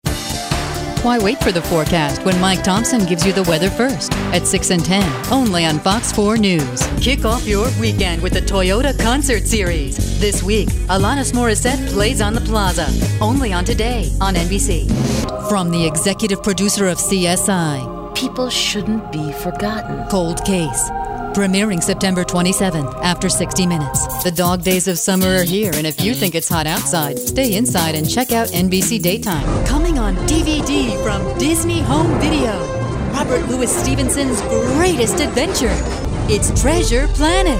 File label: promo, female voice talent